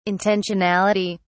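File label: "intentionality", British English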